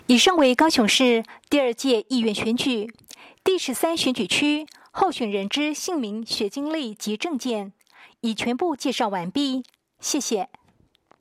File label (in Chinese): 國語, 有聲選舉公報; 高雄市; 高雄市市長議員有聲選舉公報; 第十三選區